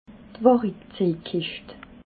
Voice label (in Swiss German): "warikzejkischt", alsace